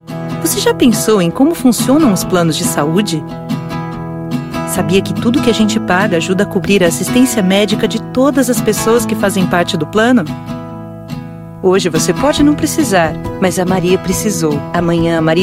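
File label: female voice, Commercial, Brazilian Portuguese; voice demos; GoLocalise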